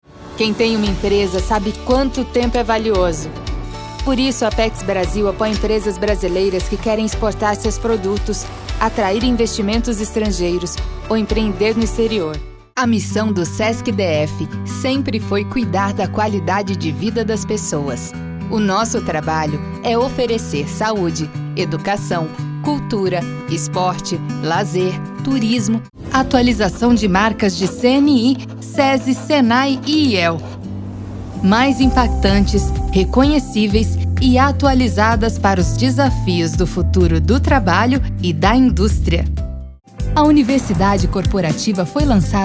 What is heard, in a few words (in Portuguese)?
institucionais